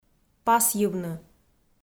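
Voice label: "пасйывны", Komi, female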